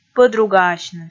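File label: "пӧдругаасьны", Komi, female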